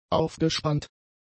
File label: "aufgespannt", German; adjectives